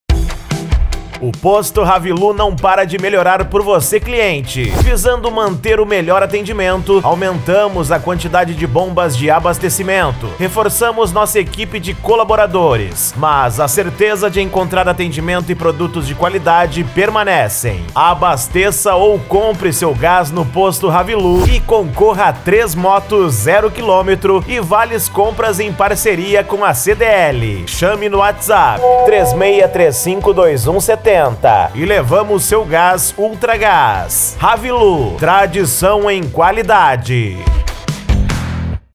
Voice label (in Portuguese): Locutor; Posto Ravilu Mutuipe BA